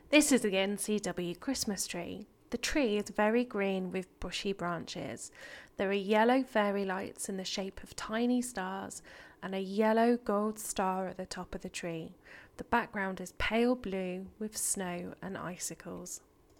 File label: voice over